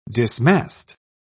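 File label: "dismast", English, British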